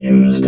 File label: "used", robot